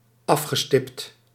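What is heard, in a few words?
"afgestipt", Dutch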